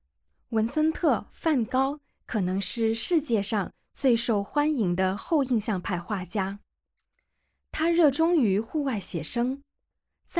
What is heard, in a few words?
Mandarin, audio guide; g9-botticelli-to-van-gogh